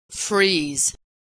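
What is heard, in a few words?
"freeze", American English; Homophones; freeze frees frieze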